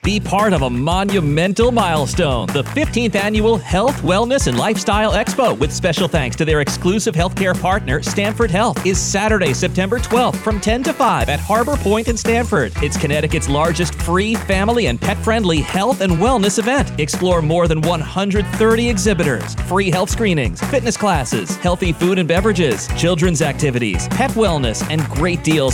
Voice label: commercial